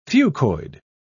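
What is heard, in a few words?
"fucoid", British English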